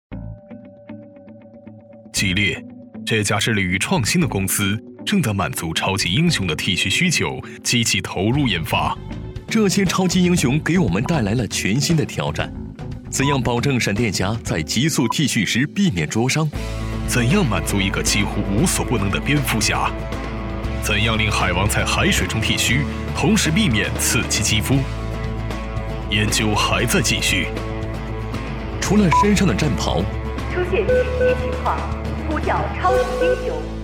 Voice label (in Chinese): 男 旁白 童声 广播女 多角色 TVC; 非常规; 吉列